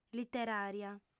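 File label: "literària", female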